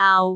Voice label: "aau3", cantone